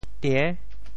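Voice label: "tie~6", Teochew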